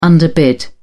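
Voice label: "underbid", British English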